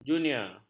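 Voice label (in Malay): "junior", Malay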